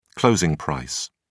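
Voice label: "closing price", British English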